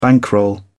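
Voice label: "bankroll", British English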